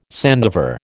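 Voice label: "sandiver", American English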